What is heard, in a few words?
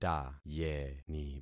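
"tayeni", concatenated syllables; Affixing experiment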